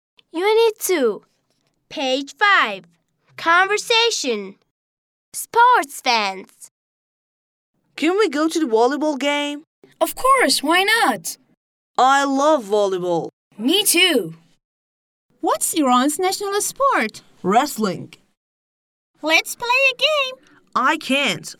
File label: conversation